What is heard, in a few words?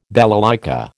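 "balalaika", American English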